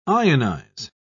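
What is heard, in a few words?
"ionise", English, British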